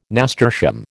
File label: "nasturtium", American